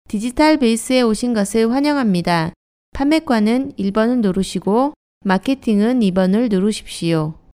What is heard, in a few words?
Korean; קריינות